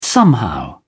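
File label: "somehow", British English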